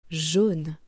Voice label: "jaune", French

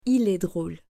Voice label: "Il est drole", French

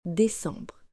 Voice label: "décembre" French

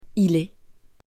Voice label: "il est", female

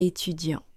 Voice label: "Etudiant", French

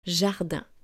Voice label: "Jardin", French

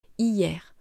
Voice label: "hier", French

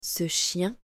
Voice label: "Ce chien", French

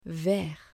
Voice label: "vert" French

